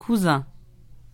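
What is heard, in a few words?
"cousin", French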